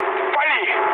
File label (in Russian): attack position, mood low, speech ru; War Thunder